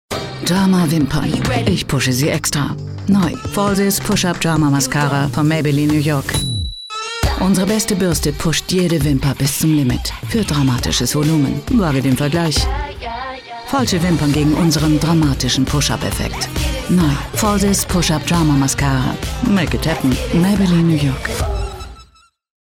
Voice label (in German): Werbung; Maybeline NY